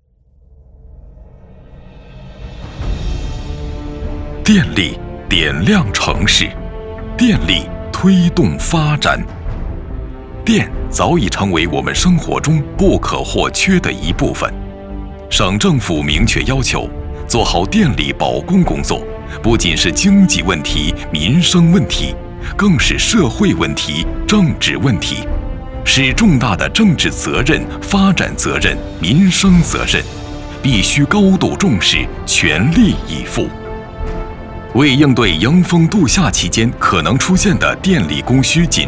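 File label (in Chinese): 汇报片演练类消防电力系统, 男配音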